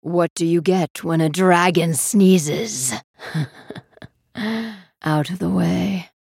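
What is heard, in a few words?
joke, English; Shyvana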